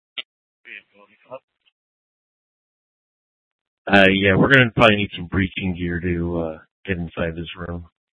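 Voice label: Police Department North Dispatch, scanner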